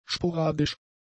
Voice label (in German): "sporadisch", German; adjectives